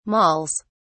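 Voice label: "malls", English, uk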